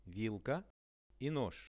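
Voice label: "vilka i nozh", fork and knife, Russian; вилка и нож; Это вилка и нож.; posuda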